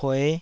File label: "pue5", taiwanese